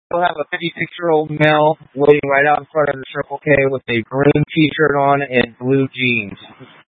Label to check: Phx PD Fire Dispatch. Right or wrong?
Right